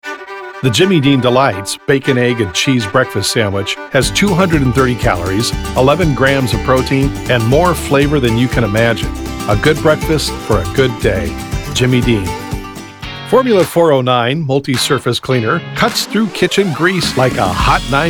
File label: Commercial